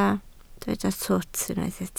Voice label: "twt", Welsh

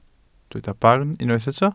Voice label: "barn", welsh

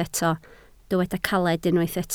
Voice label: "caled", Welsh

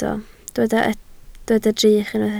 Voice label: "drych", Welsh

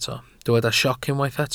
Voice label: "sioc", Welsh

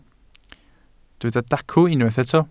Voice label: "dacw", Welsh

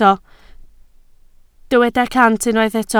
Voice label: "cant", Welsh

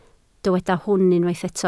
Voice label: "hwn", Welsh

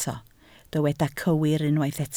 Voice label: "cywir", Welsh

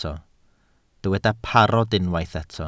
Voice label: "parod", Welsh

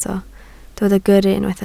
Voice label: "gyrru", Welsh

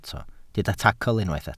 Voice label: "tacl", Welsh

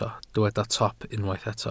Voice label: "top", Welsh

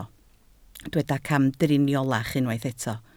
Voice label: "camdriniolach", Welsh

